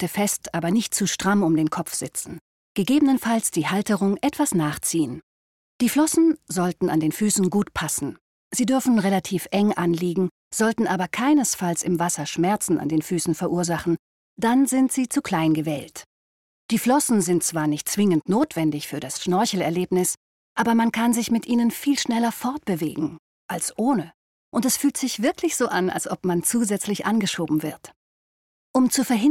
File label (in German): Erklaeraudio Tauchen